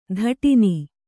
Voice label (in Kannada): "ತಾಡಣ", Kannada